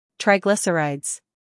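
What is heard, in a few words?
"humor", British; triglycerides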